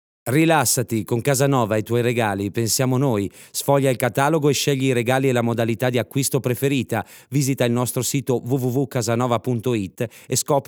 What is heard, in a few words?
ITALIAN MALE RADIO COMMERCIAL; KASANOVA STORES